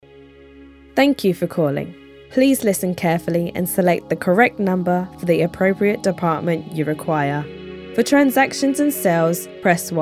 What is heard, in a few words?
IVR